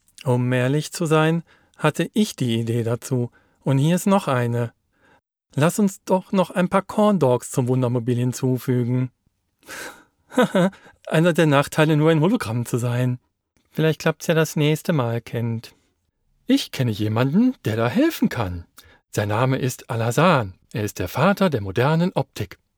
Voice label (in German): Trickfilm Synchron Cartoon Charakterstimme exzentrisch; (Trickfilm / Animation); Stil: Exzentr; Thomas Edisons Secret Lab; Rolle: Thomas Edison